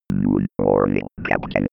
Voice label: 90s computer voice